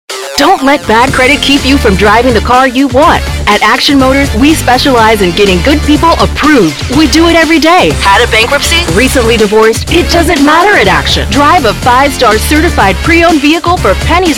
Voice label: english american automotive; Englisch (US); Vereinigte Staaten